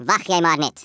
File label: "JUST YOU WAIT", Africaan, Speech; Worms Armageddon